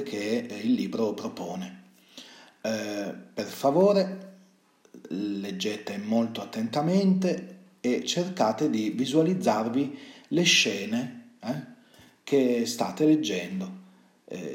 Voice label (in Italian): VOCALE PER LA COMPRENSIONE DEL TESTO; RINO PULCINO LIBRO DI LETTURA PAG. 44 45